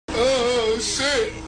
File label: Oh Shit Nervous Guy On Amusement Ride